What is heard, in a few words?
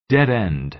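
"dead end" American English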